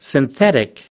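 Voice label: "synthetic", English, American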